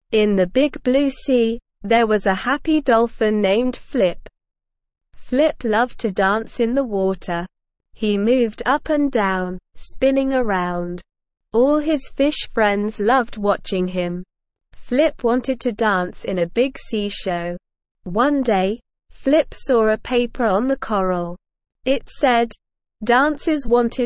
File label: ESL Short Stories for Kids Beginner SLOW Reading; The Dolphins Dance